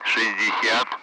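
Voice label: Speech, Russian, Numbers, 60; Allied Flight; DCS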